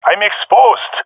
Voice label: taking fire, jungle male epic; Counter Strike Model Pack; CS:GO